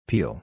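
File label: "peal", English pronunciation; long i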